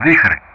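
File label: "Vikhr", English; Ka-50; Player Weapon; DCS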